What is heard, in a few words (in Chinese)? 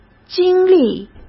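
"经历", Mandarin; chinese-flashcards; hsk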